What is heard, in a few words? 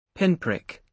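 "pinprick", British English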